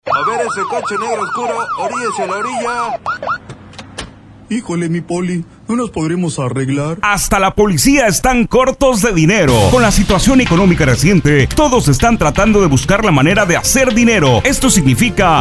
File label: spanish radio commercial